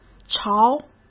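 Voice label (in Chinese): "朝", Mandarin Chinese; chinese-flashcards; hsk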